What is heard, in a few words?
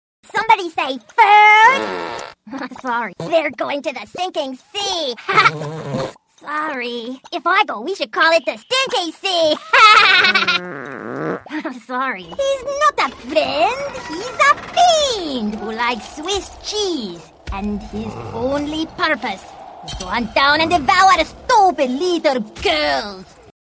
child